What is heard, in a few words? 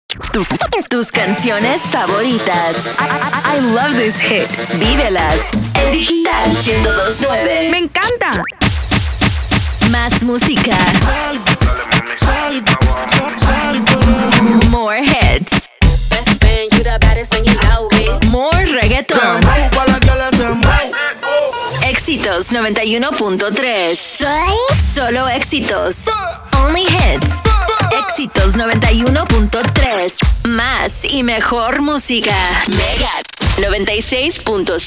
SPANISH